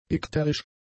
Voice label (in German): "ikterisch", German; adjectives